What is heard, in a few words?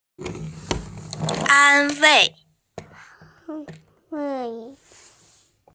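"an1wei4", Mandarin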